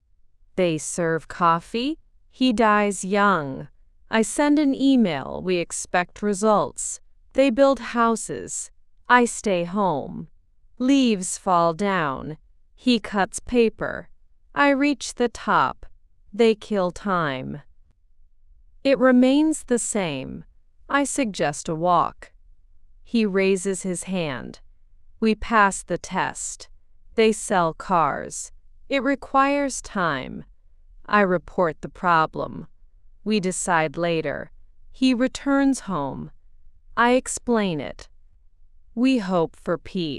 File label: AI speech